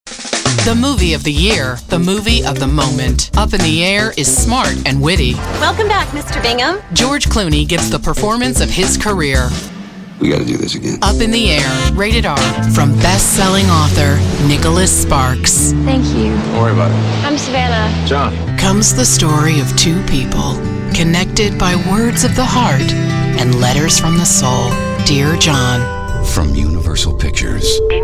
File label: Trailers